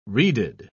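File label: "reeded", English, British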